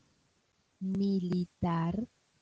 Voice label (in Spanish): "militar", espanol